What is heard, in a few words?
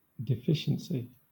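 "deficiency", British English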